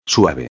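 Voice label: "suave", Spanish; ortografia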